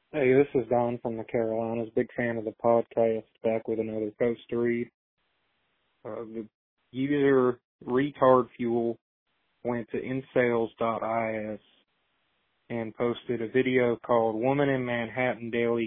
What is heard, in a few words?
Voicemail